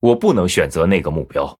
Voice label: Male Blood Elf ERROR TARGET, zh

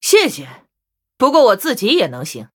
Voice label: Thanks, zh

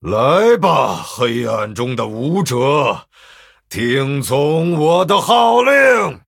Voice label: Male Night Elf Picked, zh

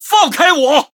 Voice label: Male Blood Elf Attack; zh

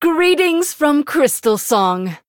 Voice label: Female Human Greetings; en

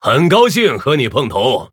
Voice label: Male Human Greetings, zh

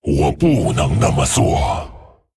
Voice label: Male Dragon ERROR PLAY; 不能使用; 我不能那么做。; 风暴巨龙迦拉克隆（英雄）; Can't play that card; I cannot do that.; zh

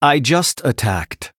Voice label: Male Blood Elf; HERO; ERROR I ATTACKED

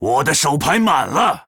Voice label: Male Troll ERROR HAND FULL, zh

